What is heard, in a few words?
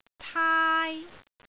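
"taai1", Cantonese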